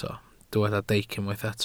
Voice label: "deic", welsh